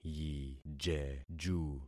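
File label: "yijeju", concatenated syllables; Affixing experiment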